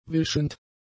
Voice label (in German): "wischend", German; adjectives